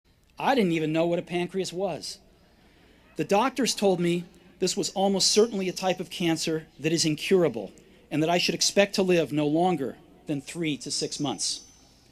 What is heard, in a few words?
Commencement Address; Steve Jobs; 2005; Stanford